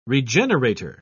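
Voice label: "regenerator", British English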